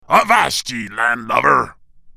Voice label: Pirate